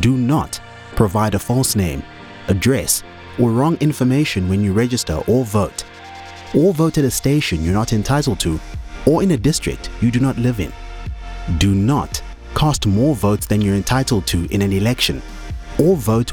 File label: Electoral fraud - English